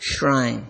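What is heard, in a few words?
"shrine", Nigerian Pidgin, male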